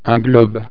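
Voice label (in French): "globe", French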